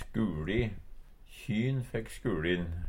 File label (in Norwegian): "skuli", Numedalsmål; Substantiv hokjønn; Hushald, mat, drikke